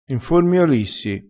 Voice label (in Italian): "informiolissi", crespinese